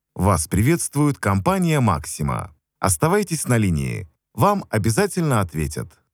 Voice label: IVR; MAXIMA